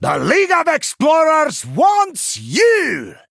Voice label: Male Dwarf Bark